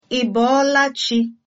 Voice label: good morning, igbo